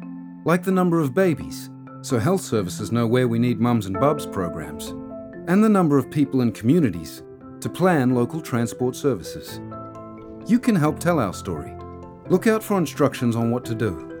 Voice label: Census is coming Indigenous radio English